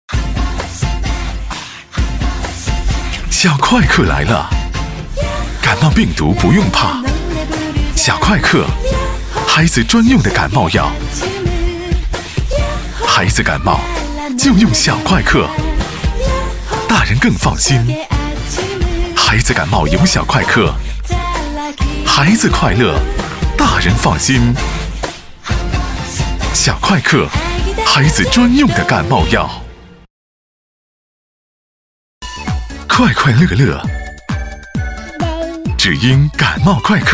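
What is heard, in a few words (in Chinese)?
男国大音-广告; 小快克系列广告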